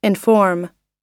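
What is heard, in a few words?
"inform", US English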